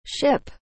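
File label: "ship", English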